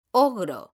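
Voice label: "ogro", Spanish; mekanta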